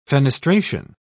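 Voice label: "fenestration", English, British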